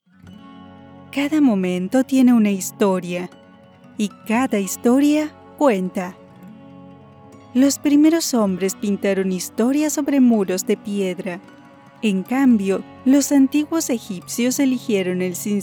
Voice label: documentary LatAm, voice-overs